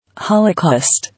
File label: "Holocaust", English